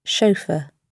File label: "chauffeur", GB